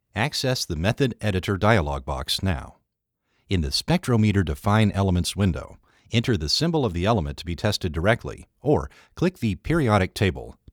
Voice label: 产品说明英语配音 生物科技专题配音

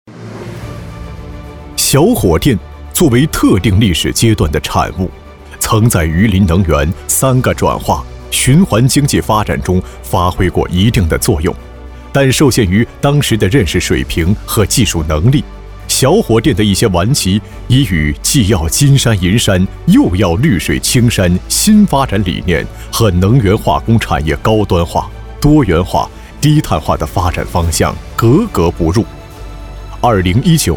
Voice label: 男 消防专题; 小火电问题整改成品参考音

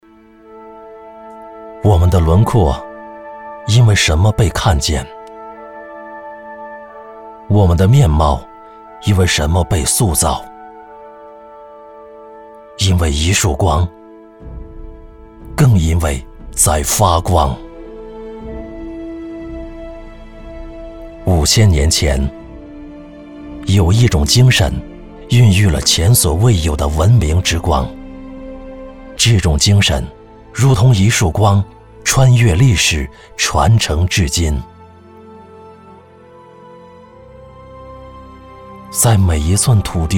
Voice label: 男, 专题片; 理想之光

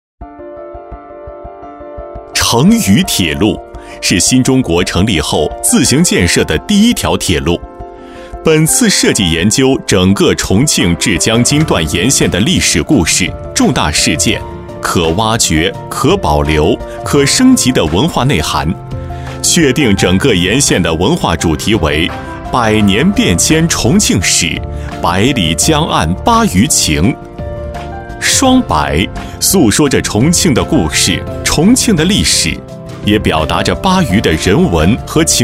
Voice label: 男 专题片 大气 成渝改造; 多媒体

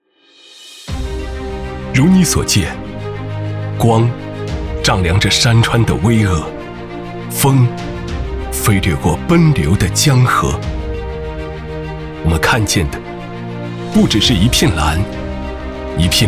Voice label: 男 品质宣传片; 新华社二十大主题宣传片-非凡中国-非凡十年

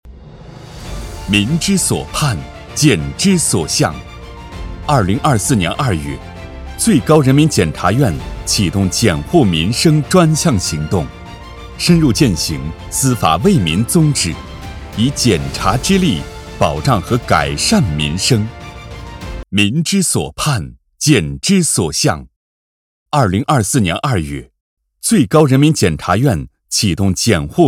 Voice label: 男, 政府专题, 大气, 激情, 沉稳, 力量感

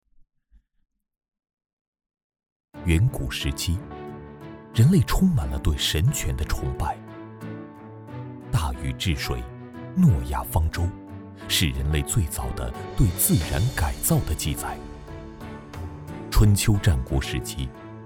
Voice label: 男 宣传

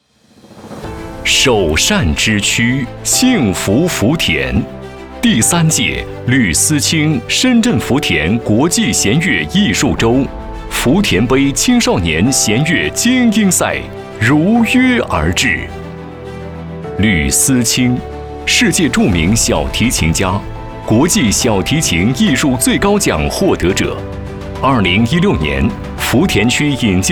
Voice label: 男-新闻-新闻发布会; 吕思清新闻发布会

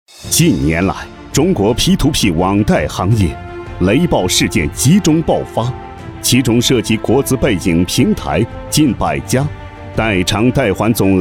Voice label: 男 专题 金融风暴

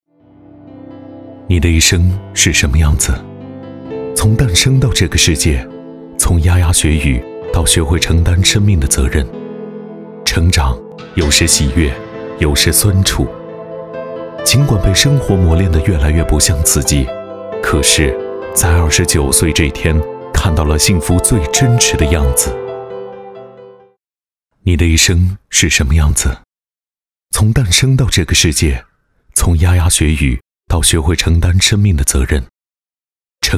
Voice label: 男 独白 低沉磁性

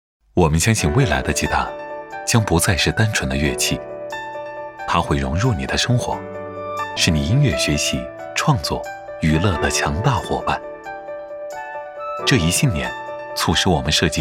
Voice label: 男 科技讲述 年轻; 恩雅吉他

